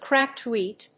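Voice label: "cracked wheat", English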